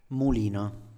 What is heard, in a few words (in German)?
"mulino", Italienisch; Mühle; Standard-Italienisch